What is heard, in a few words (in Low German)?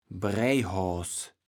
"Breijhoas", kaevels platt